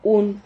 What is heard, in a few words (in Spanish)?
"hun", Silabas